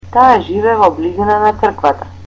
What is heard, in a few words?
she lives near the church, Macedonian